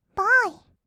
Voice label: ボーイ, kawaii voice; 音ゲー

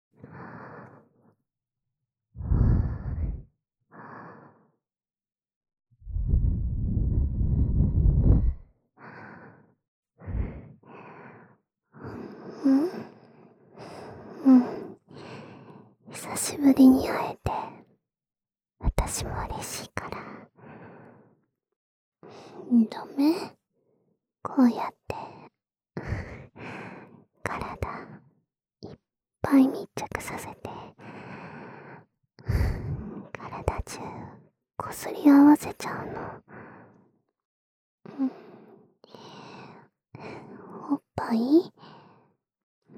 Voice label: 密着囁き＆あまあま耳舐め手コキ, ASMR

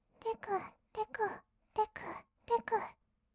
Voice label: 「てくてく」, kawaii voice, 合いの手; 1200 kawaii voice!!; ASMR

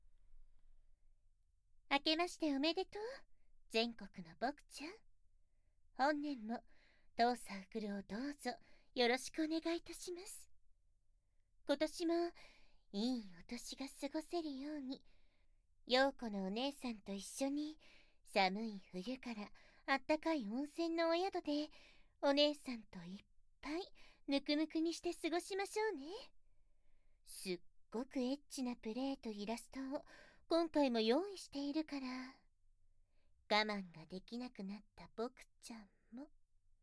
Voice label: おまけ：宣伝用ボイス, ASMR

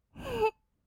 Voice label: "ふふっ", kawaii voice; ASMR; 色々なワンショット

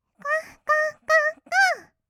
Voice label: gogo, kawaii voice, 合いの手; 1200 kawaii voice!!; ASMR

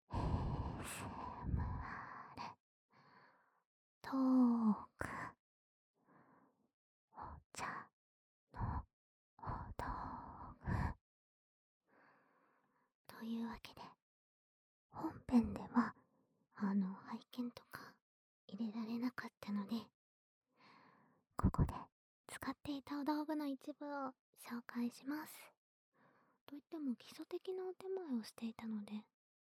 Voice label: 反転 ASMRトーク 茶道具

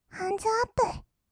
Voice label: "ハンズアップ", kawaii voice; ASMR; 1200 kawaii voice!!; 音ゲー

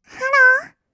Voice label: "ハロー", kawaii voice; 挨拶

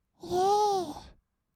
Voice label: "いえー", kawaii voice; 音ゲー

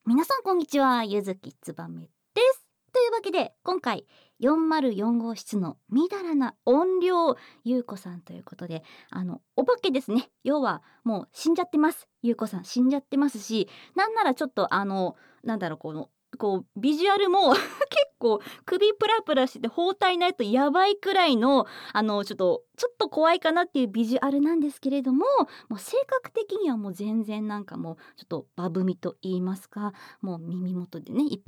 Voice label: フリートーク

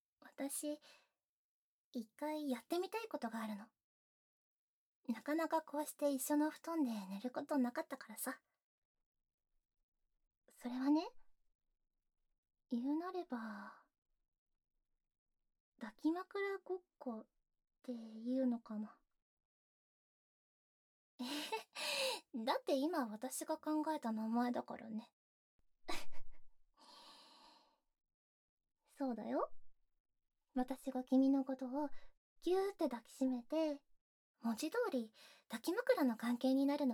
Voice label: 抱き枕ごっこ, ASMR; 効果音あり

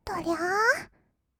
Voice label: "どりゃー", kawaii voice; 音ゲー

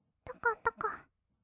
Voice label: "とことこ", kawaii voice; ASMR; 色々なワンショット

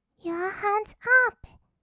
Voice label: ユアハンズアップ, kawaii voice; 音ゲー

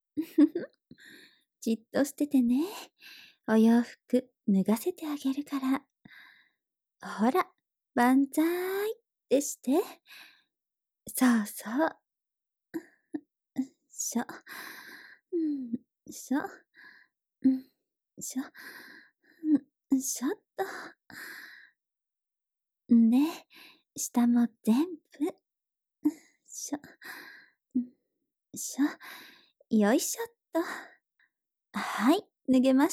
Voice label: ママの囁き＆背中トントン 台詞のみ

